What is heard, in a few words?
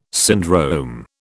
"syndrome", British